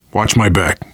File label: cover me, voice, biker; Left 4 Dead 2; survivor